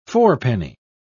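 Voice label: "fourpenny", English, British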